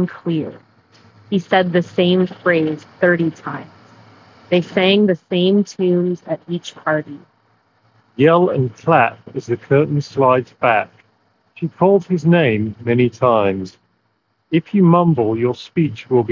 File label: Street microphone sample; Skullcandy Crusher ANC XT2